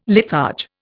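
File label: "litharge", Indian English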